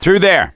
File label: misc directional, voice; gambler